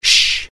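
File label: curious, Italian; mohaa; dialogue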